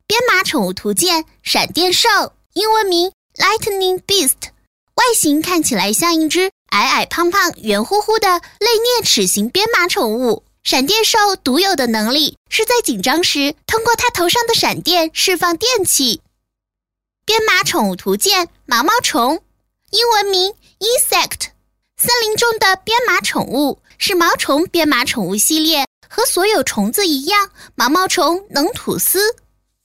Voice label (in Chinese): 女 童声 女童 编码宠物, 国语女声配音; 多风格录音